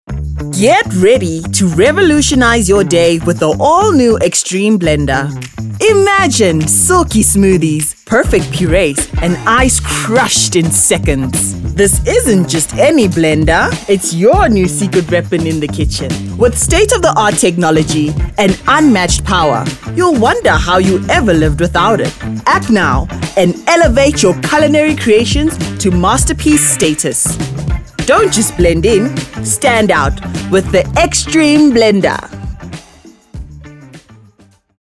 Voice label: Hard Medium Sell

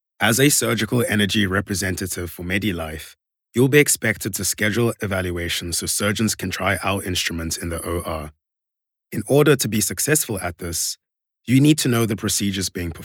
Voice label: SA Instructional

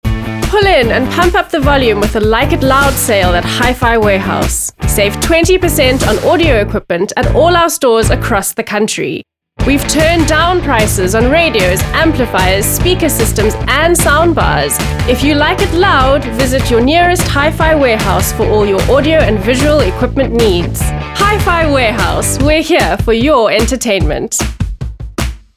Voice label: Hard Sell Retail

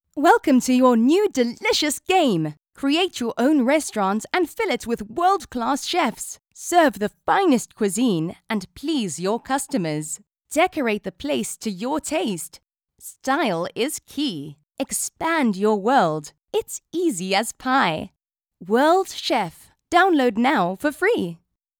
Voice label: Upbeat Enthusiastic Kids

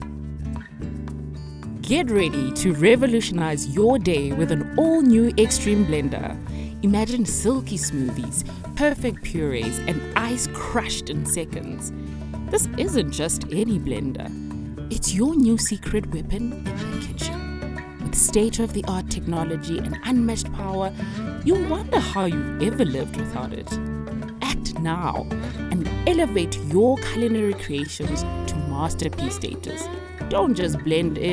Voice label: Salesy

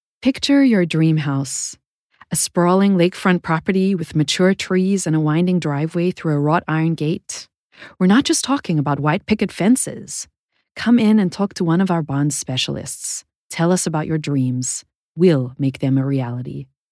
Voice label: US Soft Sell

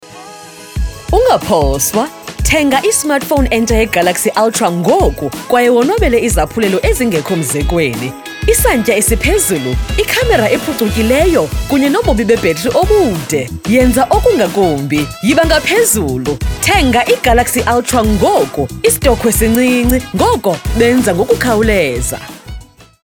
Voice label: XHOSA

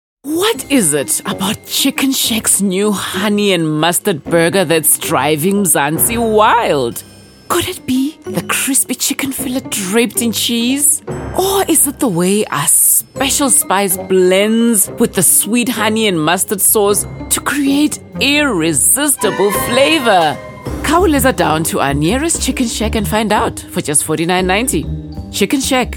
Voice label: Medium Eng Personality Read

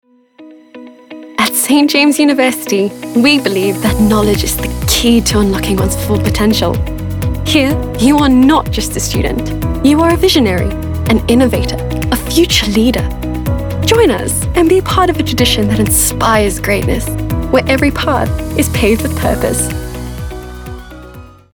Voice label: University promo

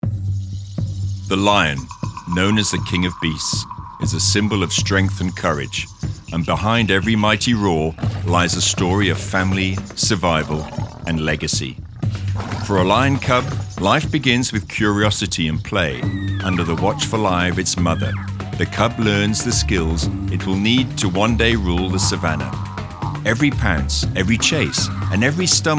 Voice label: Documentary type Lions

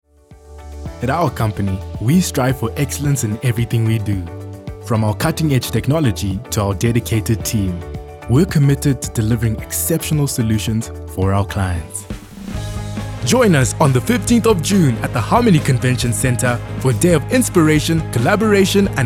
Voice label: Corporate

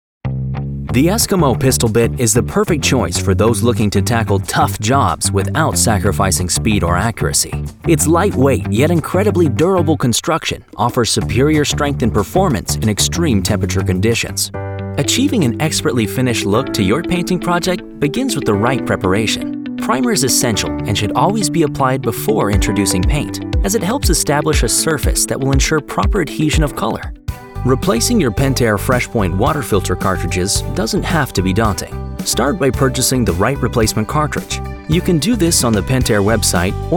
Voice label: Explainer

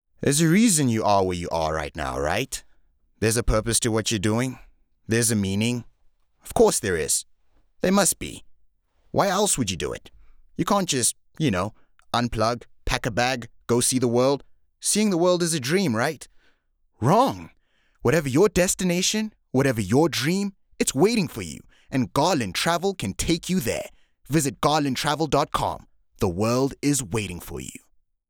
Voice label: VO, Travel; Garland

